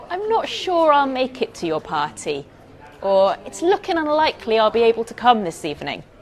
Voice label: polite request